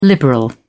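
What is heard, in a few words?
"liberal", British English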